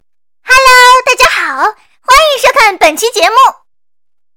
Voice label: 活泼俏皮女声短视频风格

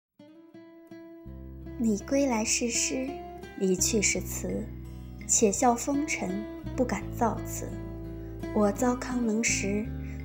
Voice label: 温柔试音

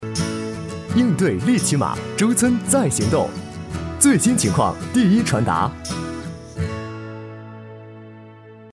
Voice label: 成熟干脆; 利奇马最新情况

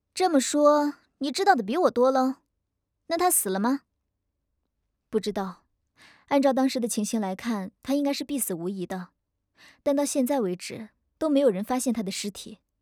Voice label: 少御

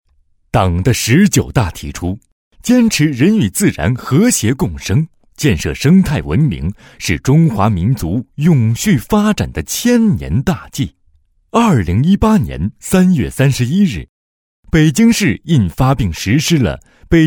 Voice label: 政府汇报片

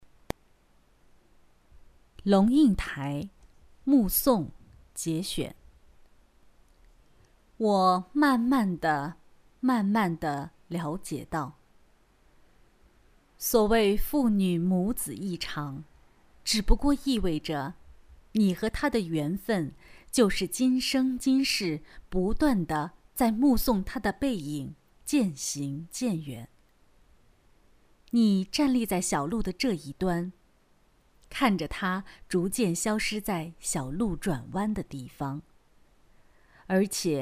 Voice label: 有声书

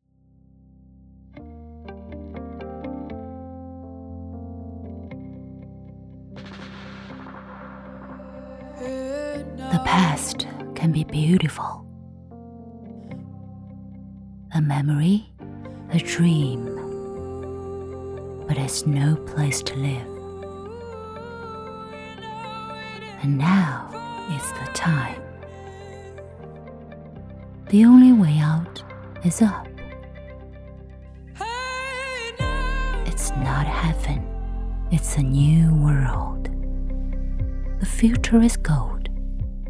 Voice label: 英磁性广告

